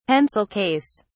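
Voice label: "pencil case", English, British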